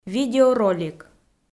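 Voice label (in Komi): "видеоролик", Komi, female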